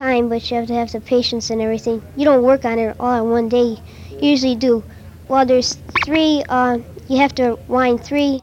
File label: Conversation with 13 year old white male Detroit Michigan, american english dialect